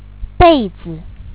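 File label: "bei4zi", Chinese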